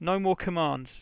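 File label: no more commands, English, Voice Sounds; Samsung SGH-i310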